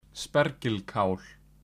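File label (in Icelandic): "spergilkál", Icelandic; matarhefti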